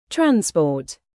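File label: "bass", noun, British; transport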